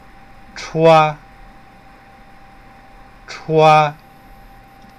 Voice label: "chua", PINYIN